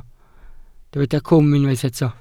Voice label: "cwm", Welsh

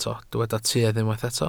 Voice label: "tuedd", Welsh

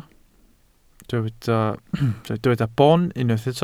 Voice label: "boon", Welsh

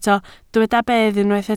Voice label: "bedd", Welsh

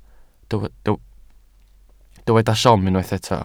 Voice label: "siom", Welsh

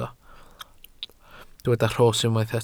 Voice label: "rhos", welsh

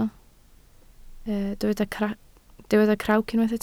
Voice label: "crawc", Welsh

